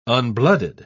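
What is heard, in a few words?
"unblooded", British English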